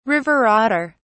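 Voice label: "river otter", British English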